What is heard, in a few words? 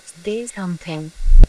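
voice acting, es, female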